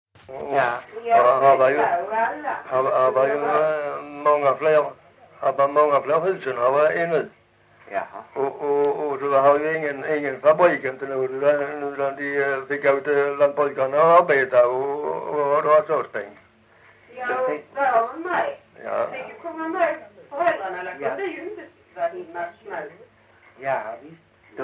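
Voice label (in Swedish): Lilla Harrie Skåne, dialekter; Folkminnen; Svenska sagor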